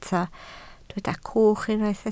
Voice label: "cwch", Welsh